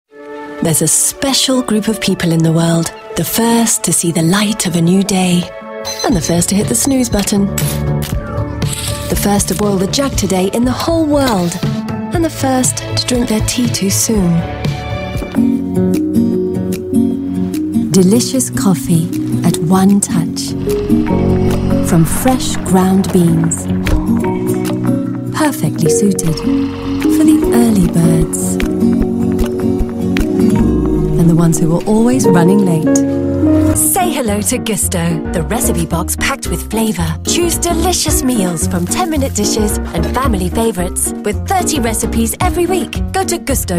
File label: VO Commercial